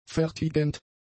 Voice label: "fertigend", german; adjectives